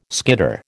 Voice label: "skitter", American

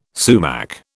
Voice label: "sumac", British

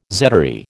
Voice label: "zedoary", American

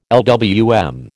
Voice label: "LWM", American

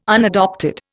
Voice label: "unadopted", Indian

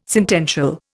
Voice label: "sentential", Indian

